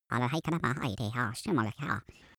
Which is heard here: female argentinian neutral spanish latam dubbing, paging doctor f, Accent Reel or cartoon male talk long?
cartoon male talk long